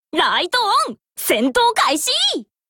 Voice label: warcry

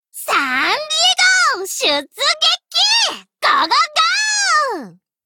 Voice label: warcry

